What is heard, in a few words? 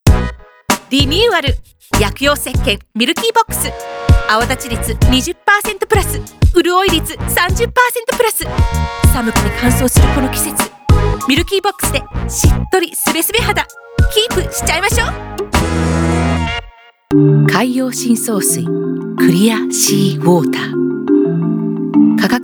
commercial japanese